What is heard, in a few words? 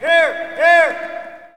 Hockey Male "Here"; Hockey Vocal Sounds